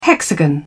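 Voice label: "hexagon", English, British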